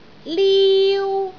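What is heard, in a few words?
"liu1", Cantonese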